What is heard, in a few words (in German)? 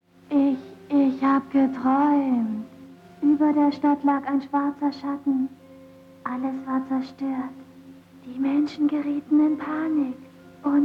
greises kind comic